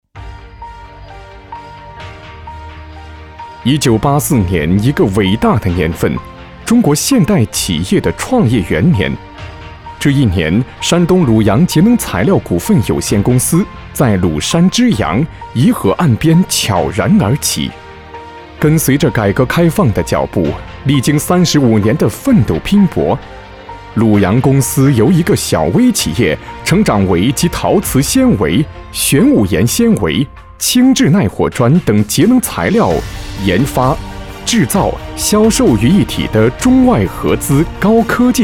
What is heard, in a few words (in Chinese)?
男, 专题企业解说, 磁性